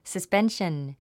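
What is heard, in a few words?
"suspension", English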